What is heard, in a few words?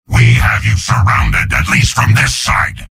soldier taunts; tf2; garrysmod; mvm